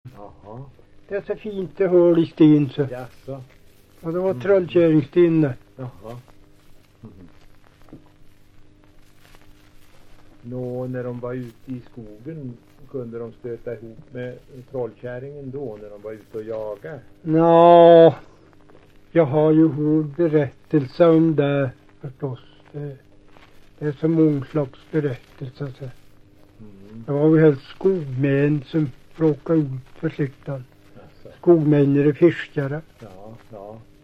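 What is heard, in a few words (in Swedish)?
Hede Härjedalen, svenska sagor dialekter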